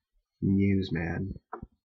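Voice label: "newsman", English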